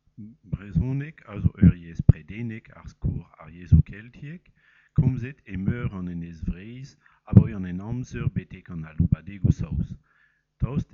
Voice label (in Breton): Brezhoneg